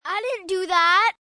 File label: I Didnt Do That, child, boy, whining; Kids; Voice Box